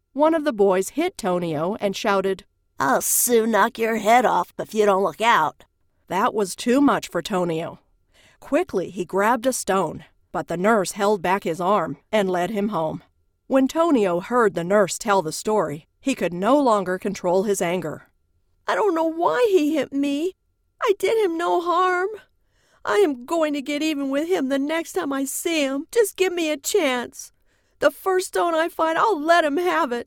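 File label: Audiobook Bully Mom Narrator; Tonio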